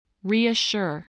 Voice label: "reassure", english